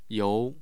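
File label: "you2", Mandarin Chinese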